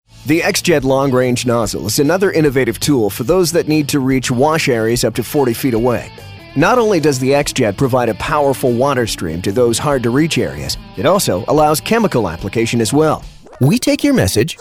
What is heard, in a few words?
english american